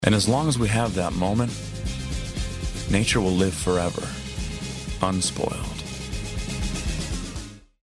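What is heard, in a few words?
US English, male, commercial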